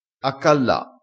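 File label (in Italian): "accalla", dialettale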